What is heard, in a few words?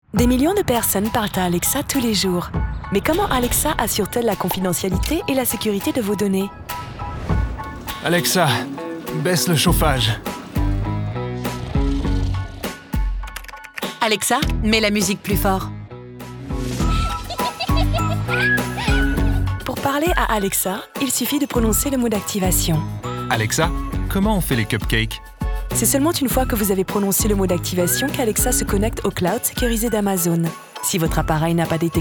female French commercial; alexa